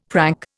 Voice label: "prank", Indian; Dictionary

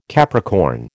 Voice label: "Capricorn", American

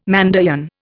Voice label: "Mandaean", Indian

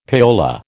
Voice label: "payola", American

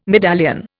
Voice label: "medallion", Indian

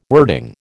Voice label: "wording", American

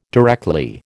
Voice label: "directly", American

